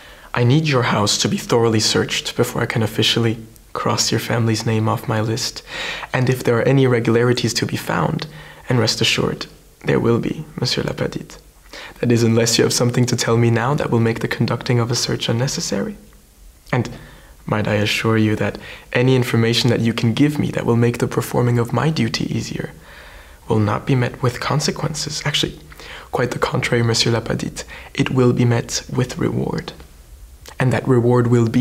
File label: English